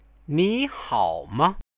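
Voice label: "ni hao ma", Chinese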